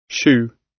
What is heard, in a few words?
"shoe", gb